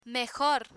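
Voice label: "mejor", Spanish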